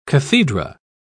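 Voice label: "cathedra", British English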